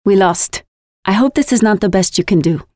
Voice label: Lose, Voiceovers; Project Argo